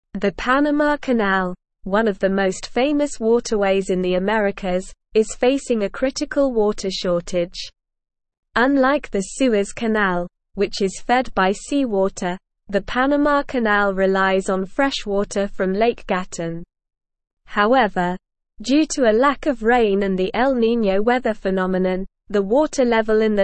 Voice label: English Advanced SLOW Reading; English Newsroom; Panama Canal Faces Critical Water Shortage Threatens Trade